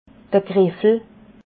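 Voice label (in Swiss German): "griffel", Alsatian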